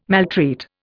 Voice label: "maltreat", Indian

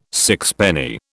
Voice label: "sixpenny", British

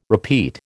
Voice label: "repeat", American

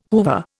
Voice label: "Hoover", Indian

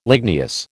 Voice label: "ligneous", American

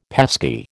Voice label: "pesky", American

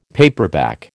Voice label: "paperback", American

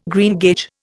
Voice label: "greengage", Indian

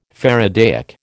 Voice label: "faradaic", American

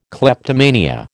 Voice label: "kleptomania", American

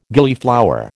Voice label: "Gillyflower", American

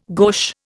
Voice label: "gauche", Indian

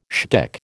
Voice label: "shtick", American

